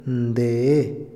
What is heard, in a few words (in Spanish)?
"tarde", Otomí, hombre; INALI